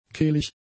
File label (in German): "kehlig", German; adjectives